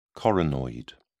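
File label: "coronoid", British English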